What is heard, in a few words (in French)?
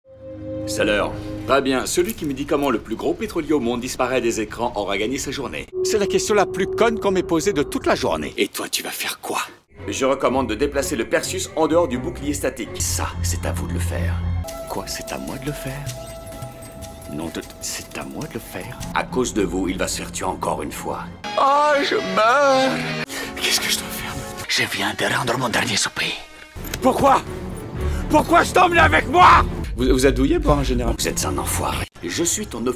Démo Doublage